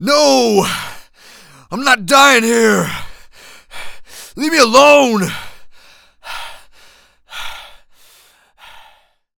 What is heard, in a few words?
Panic; zps; Humans; HumanPunk